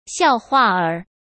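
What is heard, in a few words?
"笑话儿", Mandarin Chinese; chinese flashcards; hsk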